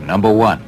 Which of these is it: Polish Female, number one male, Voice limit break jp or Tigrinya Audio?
number one male